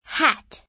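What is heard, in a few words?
"hat", English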